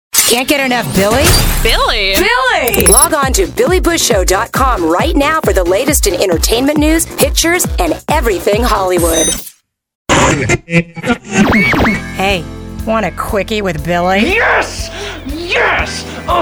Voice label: Voiceover; Billy Bush